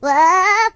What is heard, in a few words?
"Whoopsee", voices; hedgewars